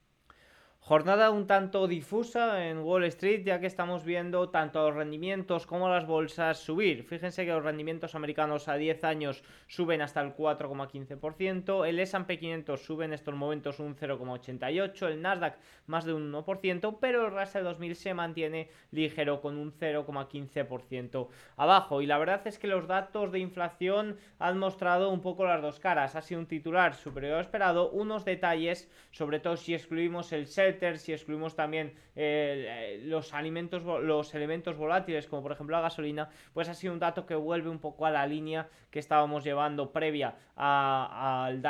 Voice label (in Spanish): radio; noticias bolsa; reacciones variadas ante el dato difuso de ipc